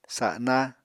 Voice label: "sana", Apatani